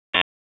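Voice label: Raleigh Police Southeast District Dispatch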